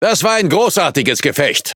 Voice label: Speech Quick Commands Good Game, DE; World Of Warships